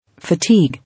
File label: "Fatigue", English